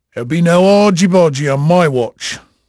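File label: English male, voice; Pirates Of The Caribbean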